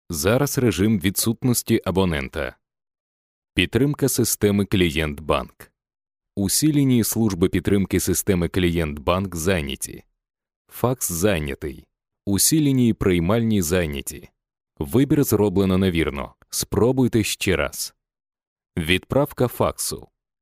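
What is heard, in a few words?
Ukrainian, male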